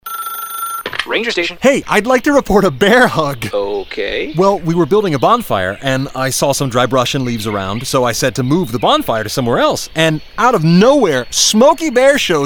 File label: commercial